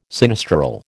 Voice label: "sinistral", American

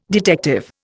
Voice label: "detective", Indian

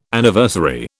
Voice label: "Anniversary", British

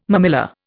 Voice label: "mammilla", Indian

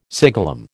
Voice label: "siglum", American

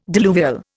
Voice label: "diluvial", Indian

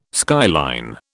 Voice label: "skyline", British

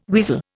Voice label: "weasel", Indian

